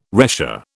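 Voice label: "retia", British English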